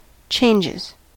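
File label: "changes", US English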